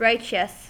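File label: "righteous", US English; pronunciations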